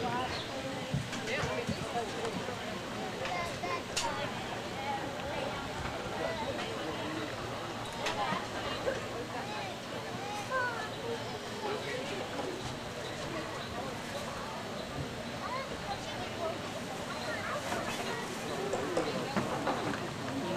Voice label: Mic Check At Festival Early Morning